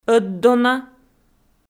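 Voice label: "ӧтдона" Komi, female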